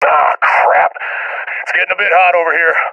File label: scared; Counter Strike Model Pack; CS:GO